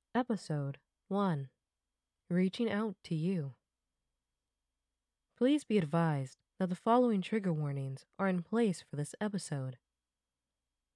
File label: Announcer